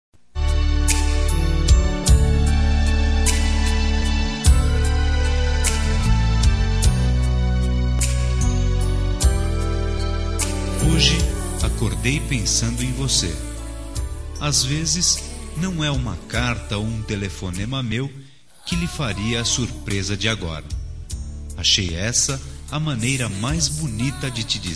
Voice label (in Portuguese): Romântica Com Saudades de Pessoa Especial, M